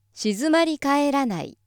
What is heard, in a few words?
female